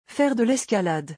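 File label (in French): "Faire de lescalade", French; vocabulaire; le lexique des vacances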